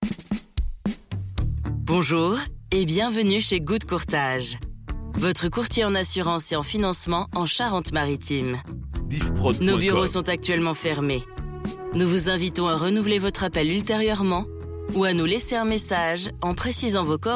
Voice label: Repondeur, telephonie svi; Good Courtage

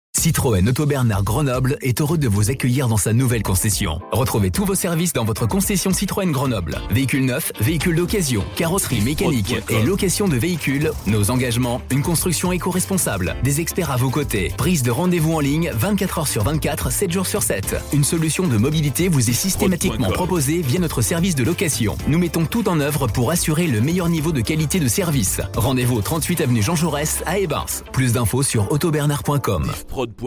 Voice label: Spot, publicite; Citroen Grenoble

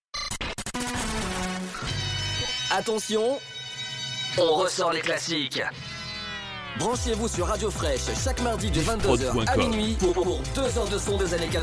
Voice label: Promo Nineties 90s

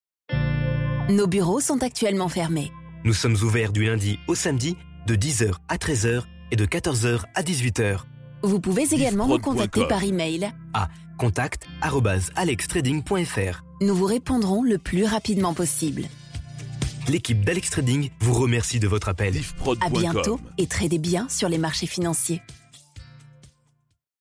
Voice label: Audiotel Repondeur, telephonie svi; Alex Trading

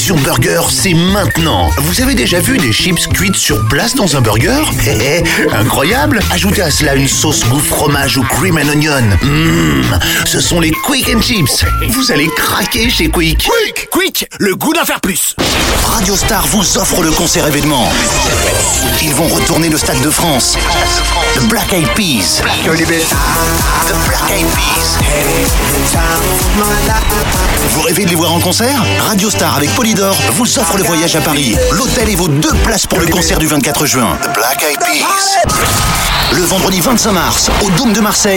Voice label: VO Voix H

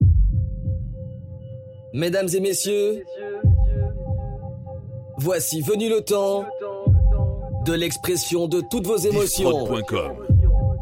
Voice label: Intro DJ

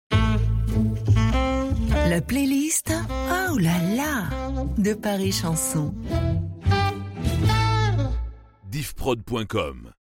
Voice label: Jingle Olala; Paris Chanson

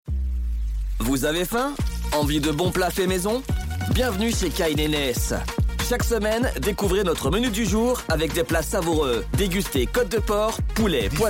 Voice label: Spot Restaurant

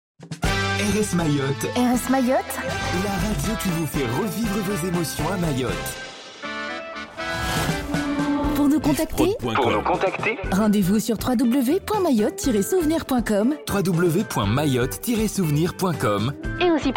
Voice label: Spot Nous Contacter; RS Mayotte

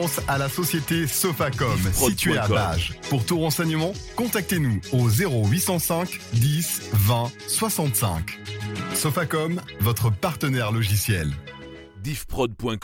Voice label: Logiciels, publicite; SOFAKOM; EBP CEGID

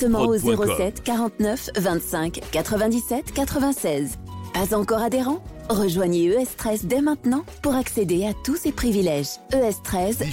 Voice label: Spot Institut Medical de la Peau; Diva FM

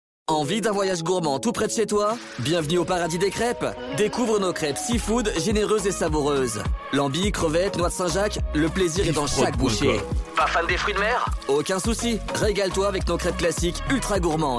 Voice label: Spot Crepes; Le Paradis des Crepes

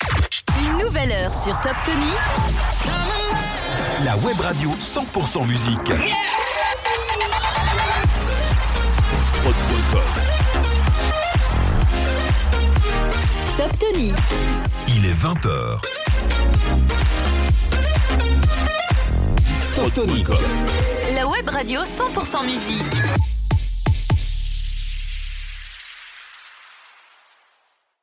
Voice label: Top H, habillage; Top Tonic

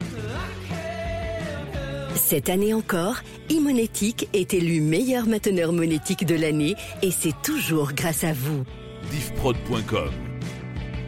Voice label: Annonce Attente, telephonie svi; E Monetique

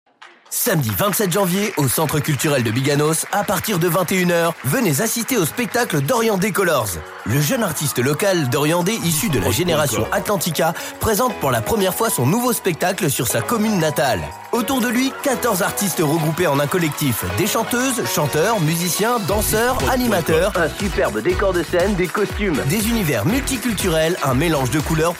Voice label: Spot, publicite; Colors

